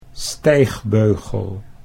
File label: "stijgbeugel", Dutch